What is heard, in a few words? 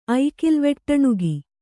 "ಐಕಿಲ್ವೆಟ್ಟಣುಗಿ", Kannada